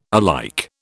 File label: "alike", British